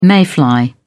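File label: "mayfly", British English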